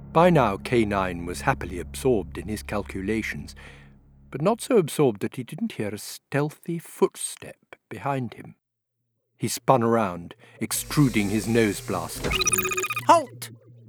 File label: audiobook